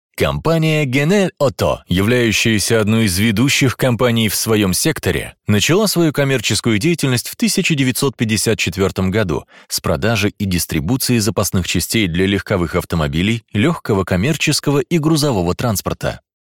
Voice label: Corporate advertising